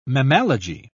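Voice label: "mammalogy", English, uk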